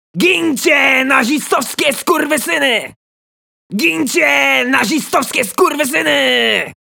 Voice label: 波兰语男 激情

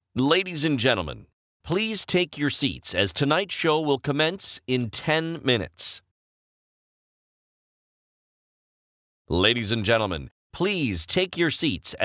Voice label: 外籍英男 演出开场入座 大气庄重

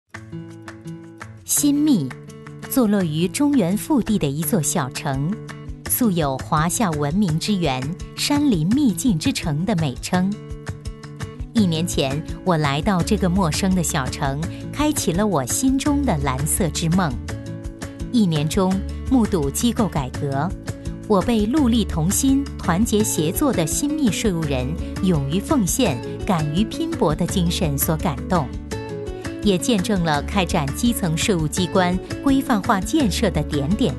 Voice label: 女 专题; 规范化建设专题稿件

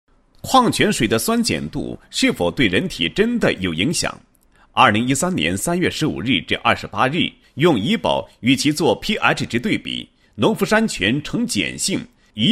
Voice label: 男, 新闻; 矿泉水的酸碱度是否对人体真的有影响

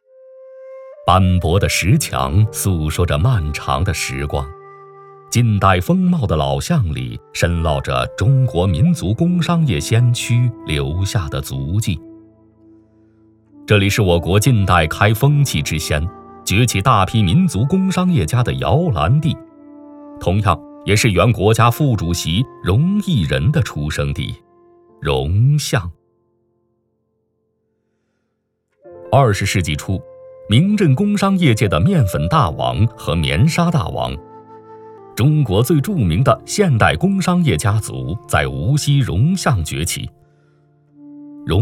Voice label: 男 人物介绍; 国家副主席荣毅仁

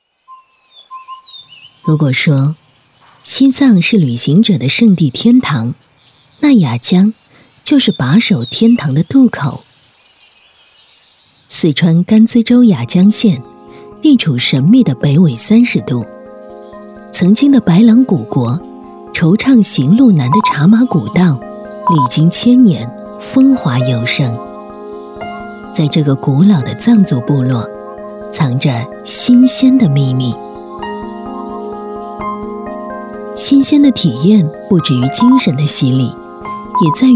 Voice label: 女 纪录片 质感讲述 松茸; 雅江松茸

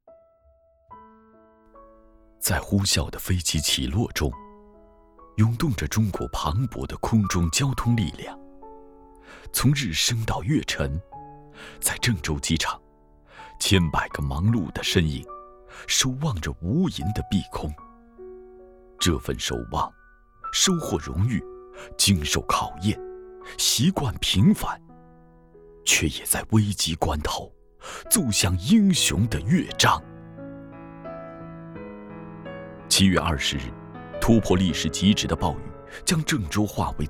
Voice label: 男 抗疫专题

